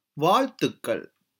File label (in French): bravo traduction tamoul